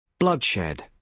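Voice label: "bloodshed", British English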